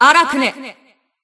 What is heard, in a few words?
System Voice; BBCP; Bullet